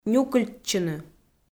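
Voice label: "нюкыльтчыны", Komi, female; kpv audio dict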